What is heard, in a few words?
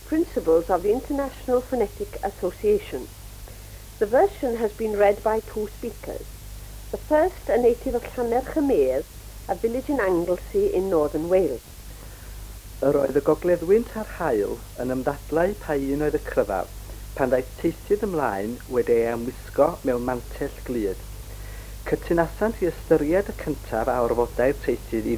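story, Welsh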